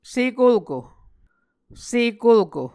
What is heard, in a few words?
"sekolko", Muscogee